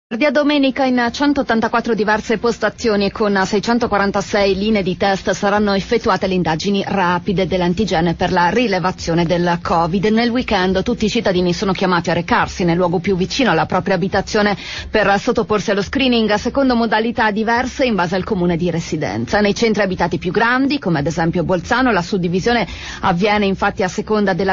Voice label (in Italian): Radio; Radio Italia Anni 60; Emergenza coronavirus tutto pronto in Alto Adige per i test di massa